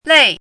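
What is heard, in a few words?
"lèi", Mandarin Chinese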